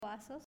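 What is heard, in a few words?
"Vasos", Spanish, female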